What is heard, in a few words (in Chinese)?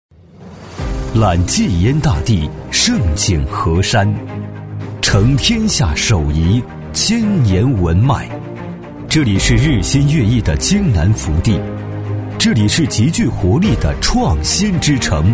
男 专题 浑厚大气; 男D18-浑厚有力 大气浑厚